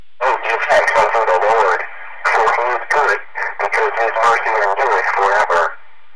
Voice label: Psalm old radio